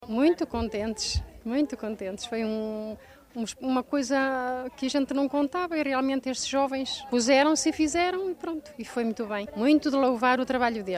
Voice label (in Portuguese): vox pop moradores